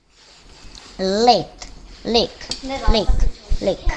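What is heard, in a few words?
"lick", spoken word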